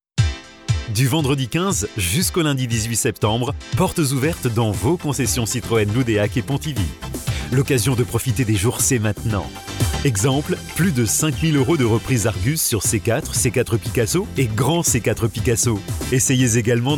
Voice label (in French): PUB VOIX OFF